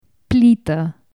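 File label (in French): "plaque de cuisson", Romanian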